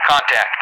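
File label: "contact", English; DCS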